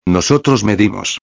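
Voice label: "medimos", Spanish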